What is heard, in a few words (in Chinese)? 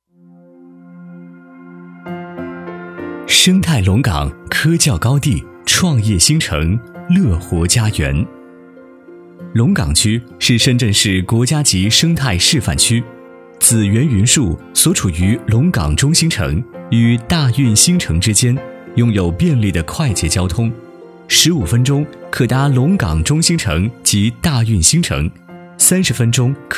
男 广告 深情讲述; 紫园云墅